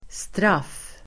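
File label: "straff", Swedish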